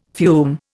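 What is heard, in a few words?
"fume", Indian English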